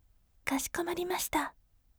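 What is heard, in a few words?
"kashikomarimashita", gentle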